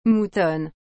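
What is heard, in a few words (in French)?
"moutonne", French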